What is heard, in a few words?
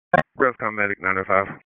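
EMS Dispatch; p25; wake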